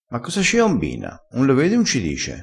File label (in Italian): "combina", crespinese